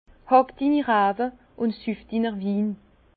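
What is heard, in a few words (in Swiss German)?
"hackdinirawe", Alsace Bas Rhin; vin; travailles tes vignes et bois ton vin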